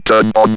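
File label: ROBVOX, robot voice; E-Lab; X Static Goldmine 1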